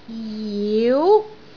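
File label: "jiu5", Cantonese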